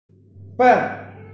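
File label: "beng4", Mandarin Chinese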